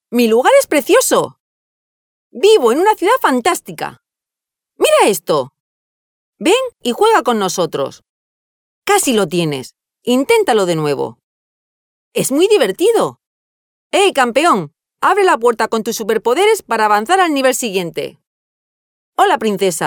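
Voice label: Spanish female children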